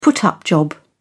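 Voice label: "put up job", British English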